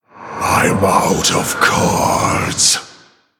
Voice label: Male Orc No Cards; Garrosh Deathknight